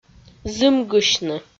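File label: "зымгысьны", Komi, female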